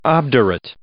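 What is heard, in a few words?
"obdurate", English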